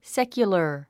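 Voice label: "secular", English word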